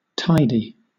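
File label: "tidy", British English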